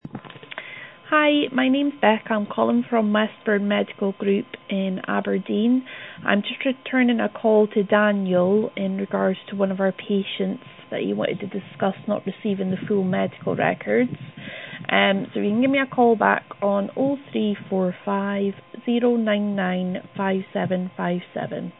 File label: call recording